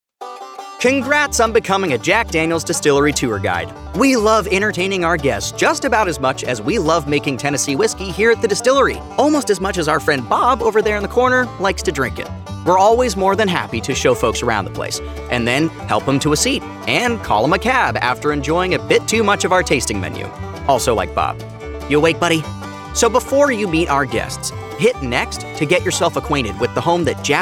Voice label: eLearning, voiceover